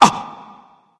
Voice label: surprise; smite; poseidon